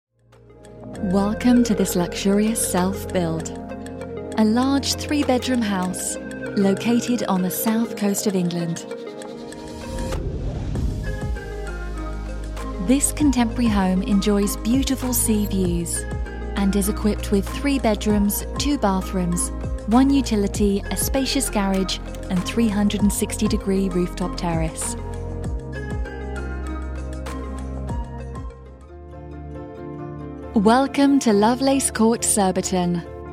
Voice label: Real Estate, british female voiceover